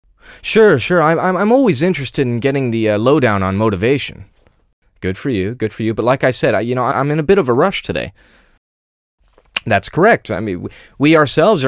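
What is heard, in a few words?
English, American, male; character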